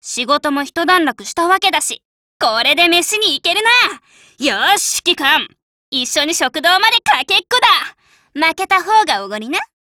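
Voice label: DIALOGUE, Japanese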